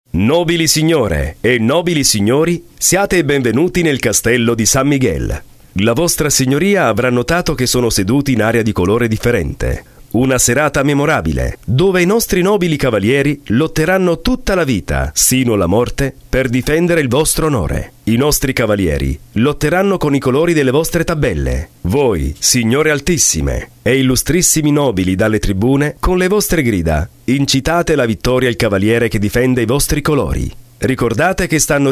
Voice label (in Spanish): italiano; CASTELLO